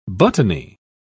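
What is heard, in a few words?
"buttony", English, British English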